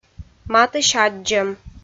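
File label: "матысь аддзӧм", Komi, female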